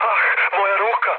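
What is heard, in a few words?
Serbian damaged pilot mood low, speech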